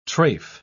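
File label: "tref", British English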